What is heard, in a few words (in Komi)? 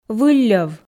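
"вылльӧв", Komi-Zyrian, female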